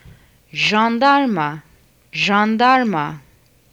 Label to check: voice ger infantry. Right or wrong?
Wrong